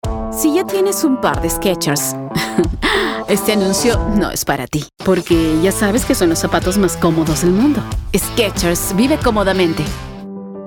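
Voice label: commercial spanish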